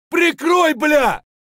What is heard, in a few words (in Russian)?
"prikroy blya" scream; tarkov